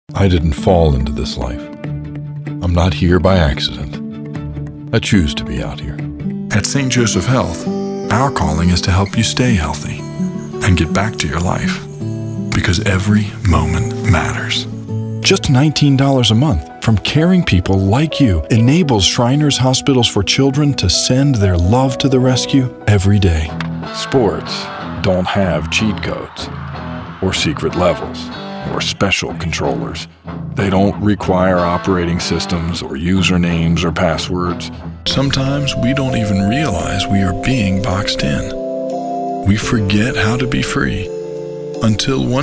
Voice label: men commercial

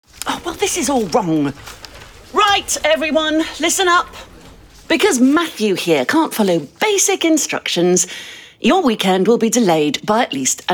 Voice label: british elearning; British voiceover with a warm, silky, intelligent & informative style. Strong, authoritative, & approachable.

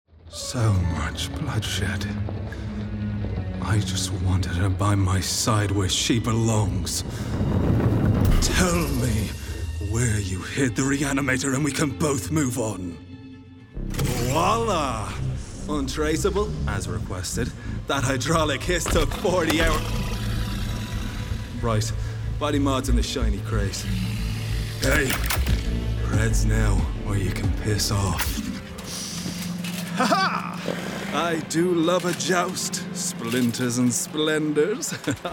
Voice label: irish video games; Warm, Confident, and Deep.

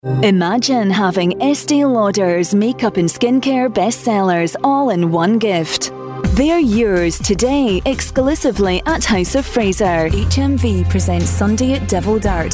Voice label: scottish commercial; BRITISH » SCOTTISH » Enthusiastic and engaging British accent. Unique and relatable Scottish accent.

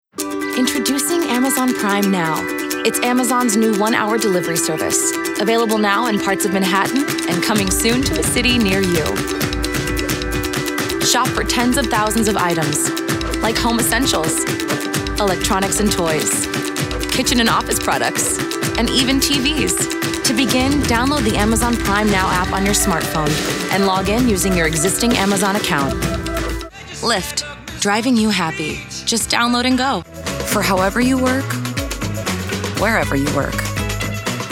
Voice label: women commercial

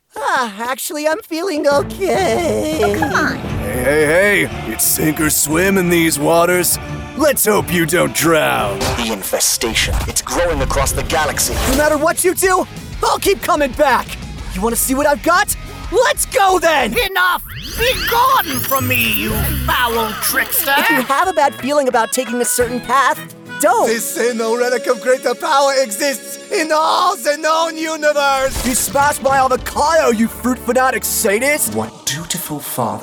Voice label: men animation; Authentic, Engaging, and Effortlessly Versatile

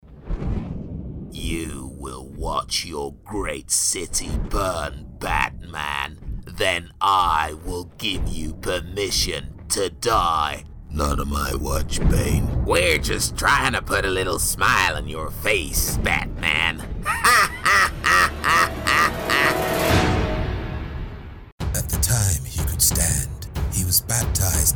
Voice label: british video games; BRITISH » Clear, precise, and engaging.

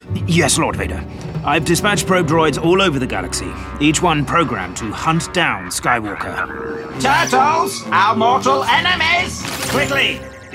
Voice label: men animation; British, Character Actor, Animation.

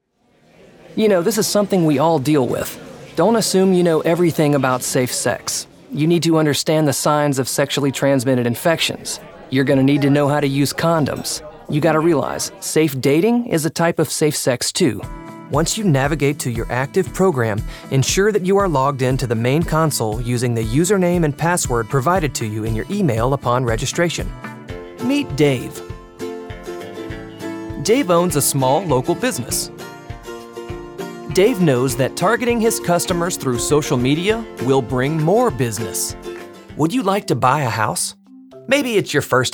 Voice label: men elearning; A young, fresh, “cool-style-thing-going-on” voice.

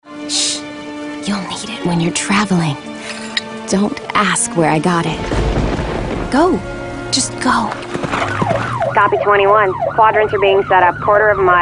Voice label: women, animation; Youthful with an edge, from mild and sweet to rocker chick with attitude.

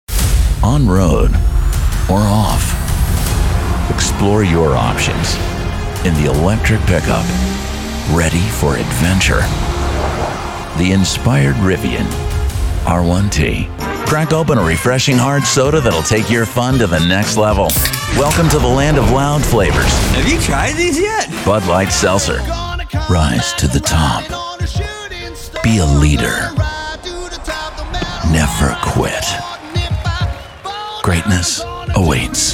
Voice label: men commercial

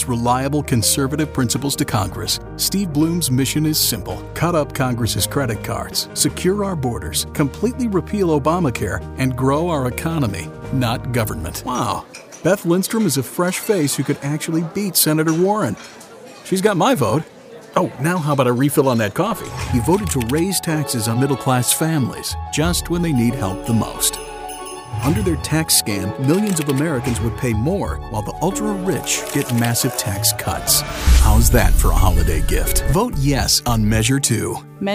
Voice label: men political

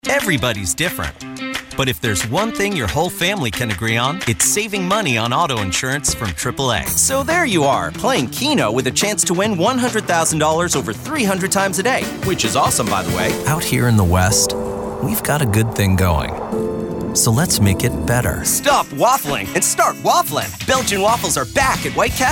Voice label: men commercial; Confident and believable, GEN-X, high-energy and witty.

